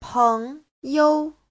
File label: "peng2you5", chinese